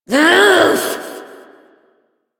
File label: Frustration